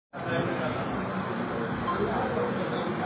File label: Voice Note